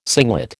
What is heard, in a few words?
"singlet", American